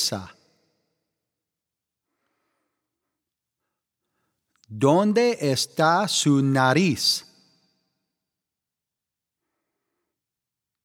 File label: Aqui Pronunciation Guide